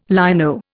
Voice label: "lino", Indian